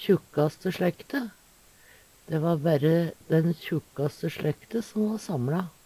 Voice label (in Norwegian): kjukkaste slekte, Numedalsmål